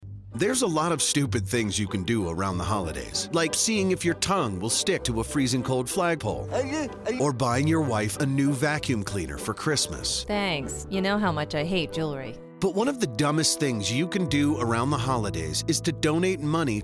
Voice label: Xmas Radio Ad; HSUS